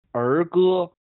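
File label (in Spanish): "儿歌", chino mandarin; 幼儿汉语; 动物儿歌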